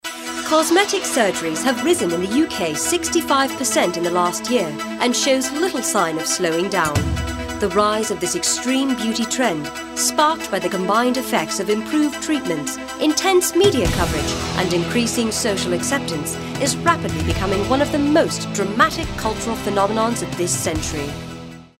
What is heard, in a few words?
british narration